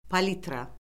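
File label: "palitra", Azerbaijani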